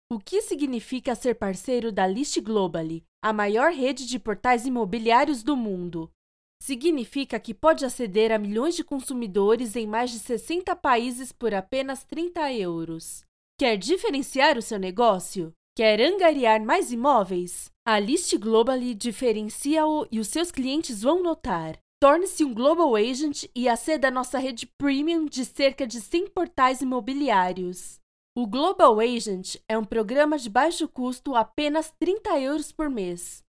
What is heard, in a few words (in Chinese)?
葡萄牙语, 老师样音; 外籍-葡萄牙语 PORTUGUESE 1888号老师样音